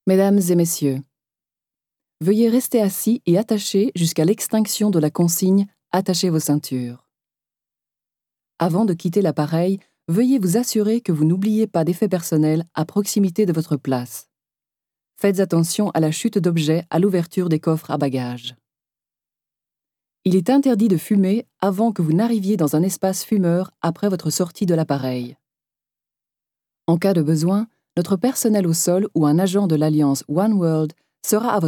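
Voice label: French General Belgium; GoLocalise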